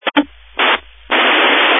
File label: Family Radio Service (FRS)